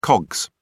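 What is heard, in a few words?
"cogs", British English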